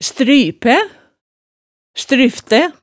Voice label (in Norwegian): "strypæ", hemsedalsmaalet